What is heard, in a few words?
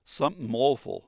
casual